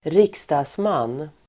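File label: "riksdagsman", Swedish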